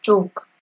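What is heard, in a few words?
"choke", Nigerian Pidgin, female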